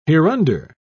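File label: "hereunder", British English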